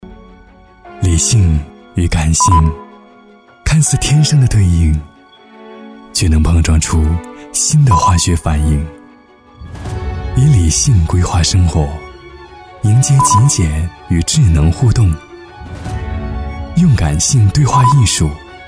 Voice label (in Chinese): 温柔科技感; 欧派克里斯系列